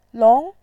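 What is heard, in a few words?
"long", Mandarin, tone 2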